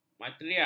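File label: "matriak", Malay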